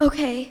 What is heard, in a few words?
sad